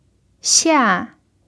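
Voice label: "xia4", Chinese; pinyin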